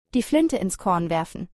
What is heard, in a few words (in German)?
die Flinte ins Korn werfen, German